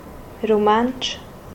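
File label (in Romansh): "rumantsch" Romansh, Vallader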